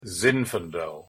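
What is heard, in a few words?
pronunciation english zinfandel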